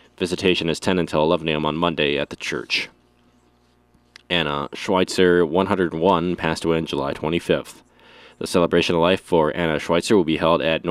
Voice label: Funeral Announcements